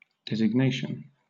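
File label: "designation", British English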